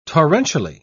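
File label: "torrentially", English, British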